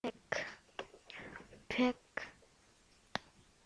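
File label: "pick", spoken word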